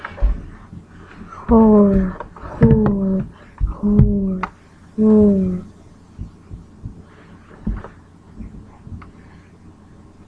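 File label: "hold", spoken word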